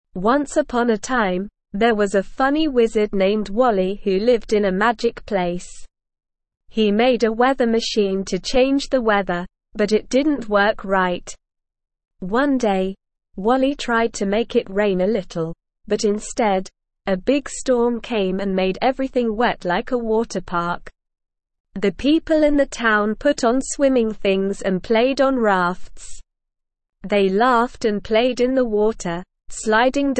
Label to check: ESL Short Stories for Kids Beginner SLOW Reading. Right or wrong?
Right